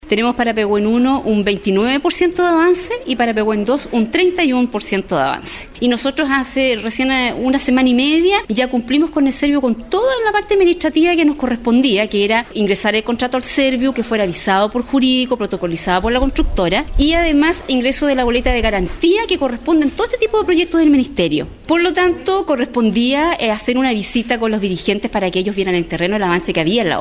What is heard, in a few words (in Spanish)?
Cuña; Entidad Patrocinante